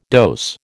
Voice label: "dose", American